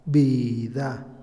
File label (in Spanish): "instrumento de cuerda", Otomí, hombre; Posesión; INALI